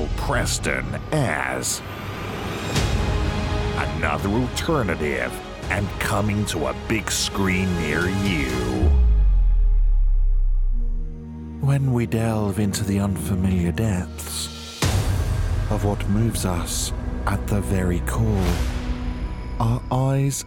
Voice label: Trailer

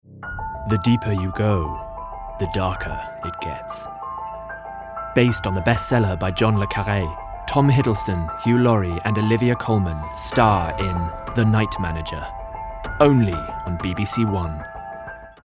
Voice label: Promo, en-US; Bigmouth Voices

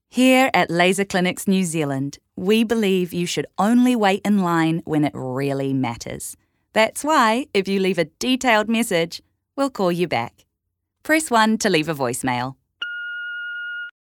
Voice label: IVR

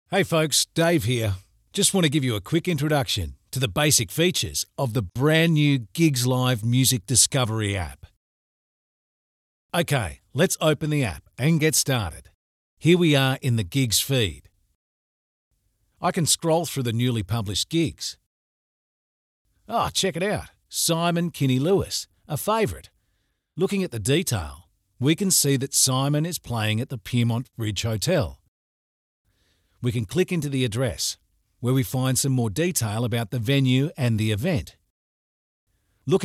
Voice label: App Explainer Video; GIGZ

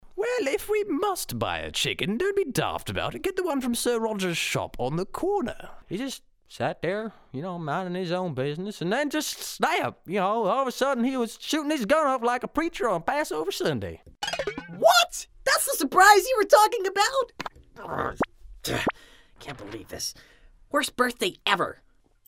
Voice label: Quirky

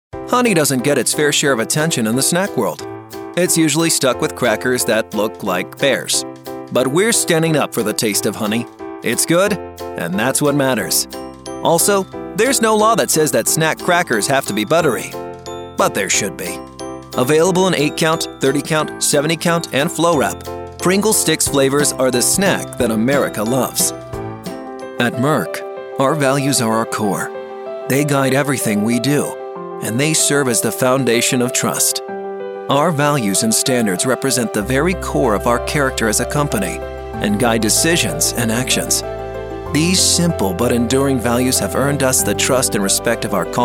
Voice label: Corporate

